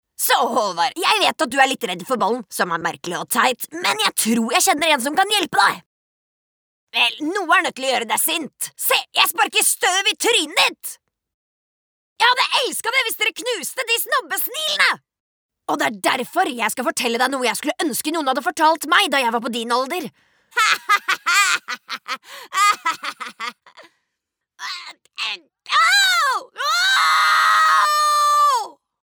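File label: Norwegian character tough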